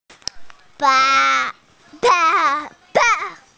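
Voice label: "bai2", Mandarin Chinese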